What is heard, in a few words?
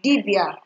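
"dibia", Nigerian Pidgin, female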